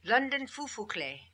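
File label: "London Phu Phu Kle", pronunciations; Fourth Way; Beelzebub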